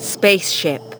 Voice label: LoFi Words, LoFi Vocals

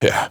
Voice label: Phrase Pitched; Vengeance Samples

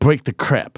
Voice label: Phrase Male Rap; Vengeance Samples

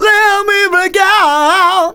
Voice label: Phrase Male; Vengeance Samples

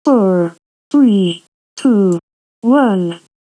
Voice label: Countdown (robot); Vengeance Samples

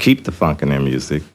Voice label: LoFi Phrases, LoFi Vocals; Vengeance Samples

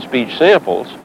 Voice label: LoFi Phrases, LoFi Vocals; Vengeance Samples

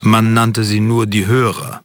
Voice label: LoFi Phrases, LoFi Vocals; Vengeance Samples

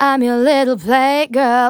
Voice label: Phrase Female; Vengeance Samples; Phrases

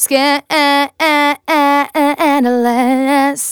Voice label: Phrase Female; Vengeance Samples; Phrases

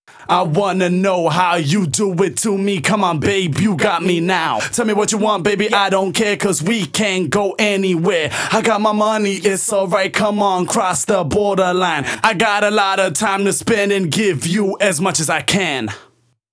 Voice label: Rap To The Top Vocals WET, 128 BPM

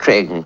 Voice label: LoFi Words, LoFi Vocals

